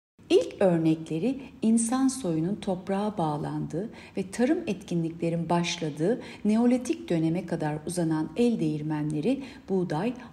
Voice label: turkce